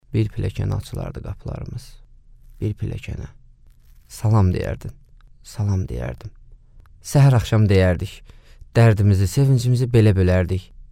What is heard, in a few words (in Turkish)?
Azerice Erkek, seslendirme